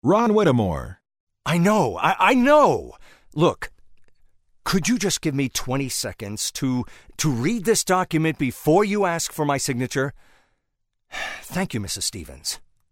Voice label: ANNOYED